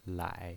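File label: "lai", pinyin, Mandarin Chinese, third tone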